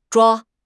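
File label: "zhua1", Chinese; HSK4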